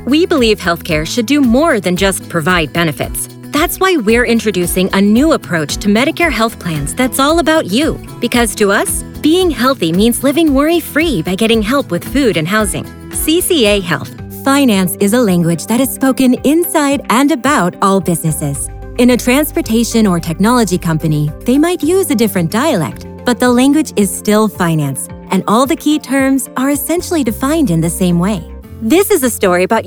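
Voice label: voice over narration; global voice acting academy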